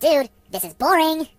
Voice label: "Boring", Surfer

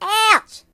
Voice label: "Ouch", Mobster; HedgewarsMobile

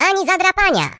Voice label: Flawless, voices, pl; hedgewars; Default pl

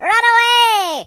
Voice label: "Run away", British; hedgewars

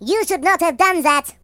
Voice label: Gonnagetyou, voices Russian; hedgewars

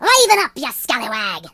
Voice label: Boring, voices, Pirate; hedgewars

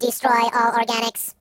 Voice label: You'll regret that, Robot

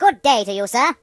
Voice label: "Hello", British; hedgewars

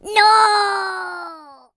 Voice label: "Fly away", Pirate; hedgewars

